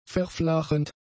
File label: "verflachend", German; adjectives